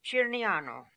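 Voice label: "Chirniano", pronunciations; Fourth Way; Beelzebub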